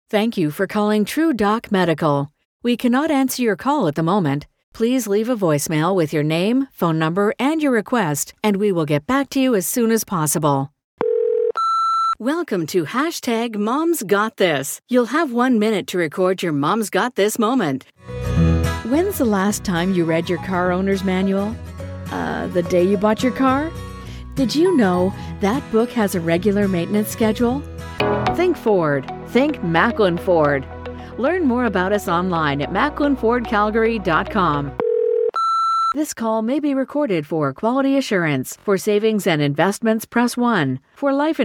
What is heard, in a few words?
female English neutral North American accent IVR compilation